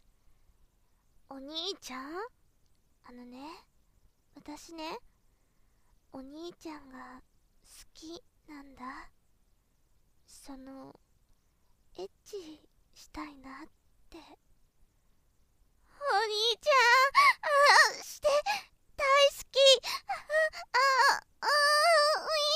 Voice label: 妹, ASMR

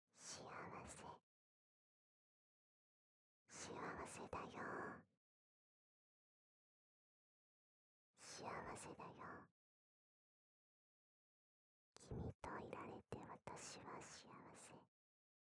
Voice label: 囁き 左耳

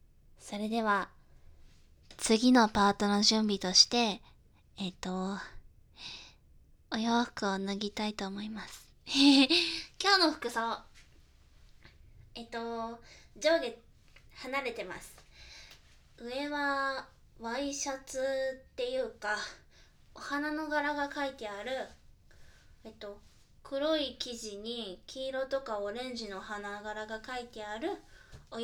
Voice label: ASMR 脱衣 バイノーラル

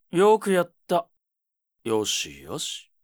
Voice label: homeru, ASMR

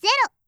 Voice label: "ゼロ", カウント

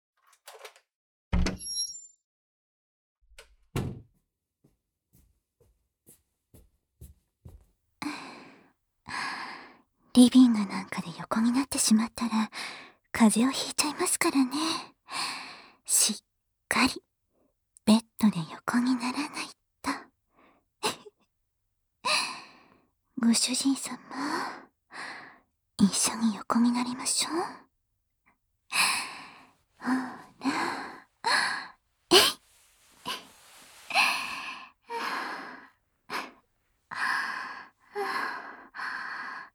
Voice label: 寝室あまあまエッチ【耳舐め・手コキ・フェラ】, ASMR; 酔いどれメイドと寝室あまあまエッチ♪【耳舐め・手コキ・フェラ】

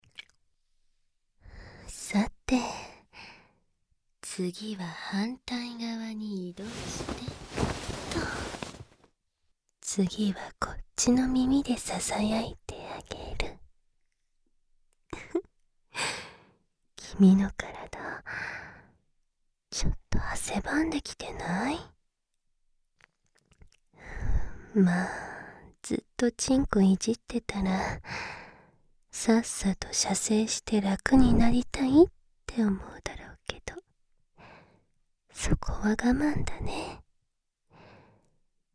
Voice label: 囁き（左耳）; 効果音有り

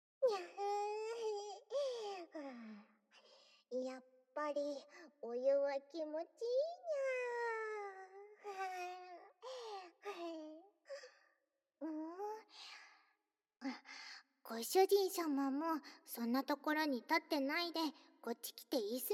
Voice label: お風呂で耳舐めシャンプーにゃ～♪, ASMR; SE無し

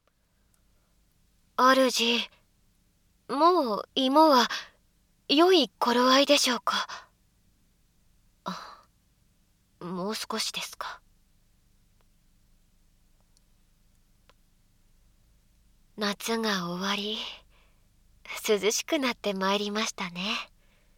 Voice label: 声のみ ノイズ除去なし; 雪夜さんと交際; 効果音なしver

